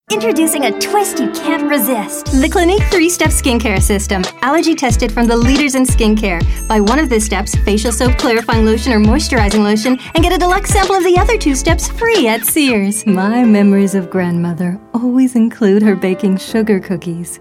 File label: Commercial Voice